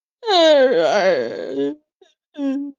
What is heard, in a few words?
voice message